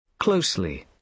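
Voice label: "closely", British English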